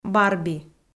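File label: "барби", Komi, female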